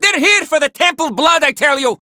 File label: Villagers Male, Cetus Invasion